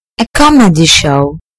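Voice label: "comedy show", British English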